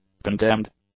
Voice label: "condemned", English; adjectives